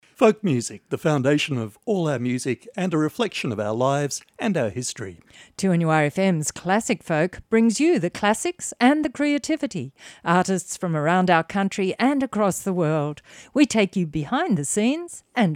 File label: Folk Music Promo; classic folk